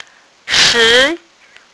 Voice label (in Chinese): "shi2", phonics